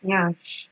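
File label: "nyash", Nigerian Pidgin, female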